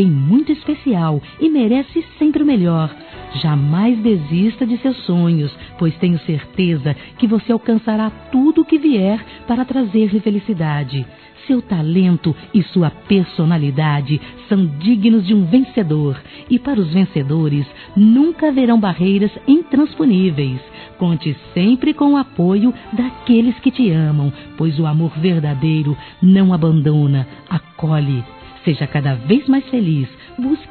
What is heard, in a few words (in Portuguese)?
Aniversário Sobrinho Feminino; Aniv Familiar